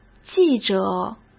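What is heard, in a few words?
"记者", Mandarin Chinese; chinese-flashcards; hsk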